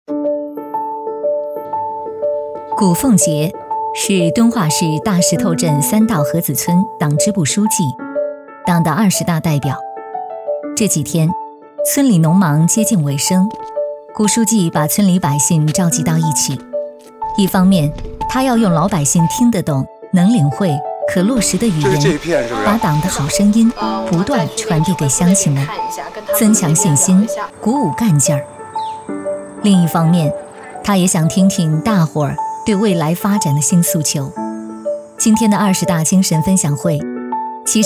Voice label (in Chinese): 女 纪录片大气理性; 二十大党代表代表归来